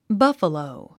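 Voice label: "buffalo", English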